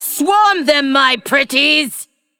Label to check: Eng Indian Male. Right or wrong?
Wrong